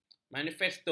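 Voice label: "manifesto", Malay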